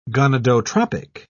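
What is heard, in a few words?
"gonadotropic", British English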